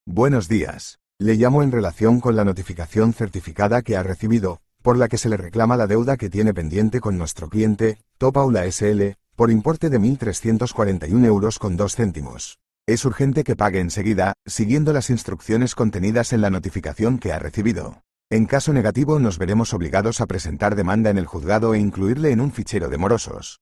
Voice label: audio call